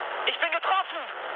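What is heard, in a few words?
German aircraft damaged mood med, speech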